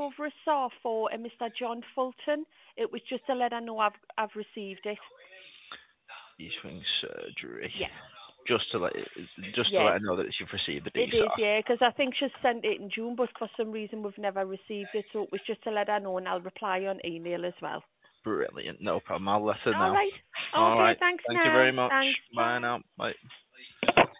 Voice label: call recording